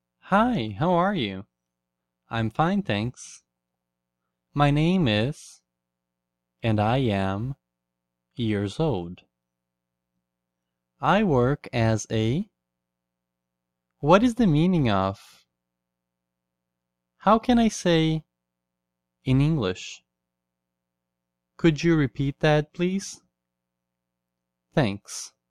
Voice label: Classroom English